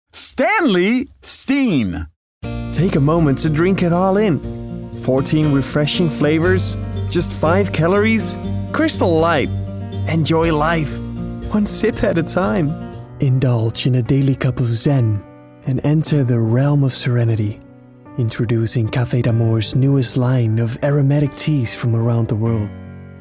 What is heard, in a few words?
1min Commercials